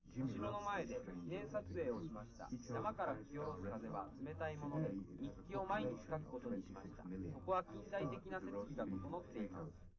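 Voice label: male3 live recording 130ms 5cm, underdetermined; SiSEC11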